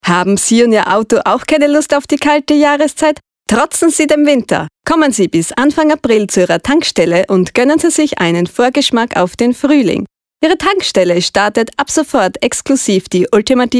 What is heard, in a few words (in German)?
Werbung